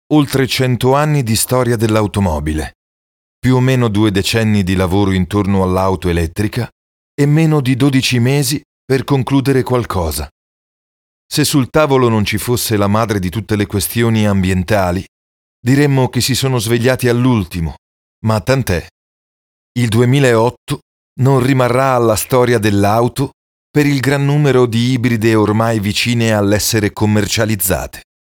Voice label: ITALIANO IMPOSTATA